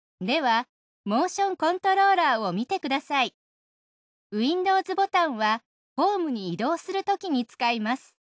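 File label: windows button, voiceovers, Japanese; retaildemo; Mixed Reality Learning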